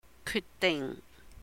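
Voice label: "kyut dihng", decide, Cantonese; 決定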